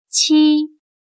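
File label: "七" Chinese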